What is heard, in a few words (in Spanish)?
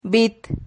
"vit", Silabas